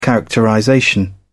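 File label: "characterization", British English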